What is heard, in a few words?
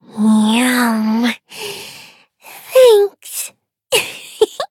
victory, en; Cookie Run